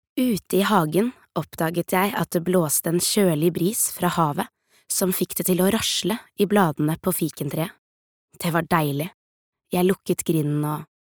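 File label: no audio book, Norwegian; norsk; kvinne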